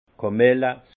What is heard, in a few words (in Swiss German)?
"kamille", Alsace; vin; camomille